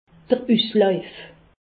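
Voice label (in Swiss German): "uslauf", Alsace, Haut Rhin; medecine; gonorrhées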